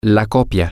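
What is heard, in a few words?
copy, Spanish, male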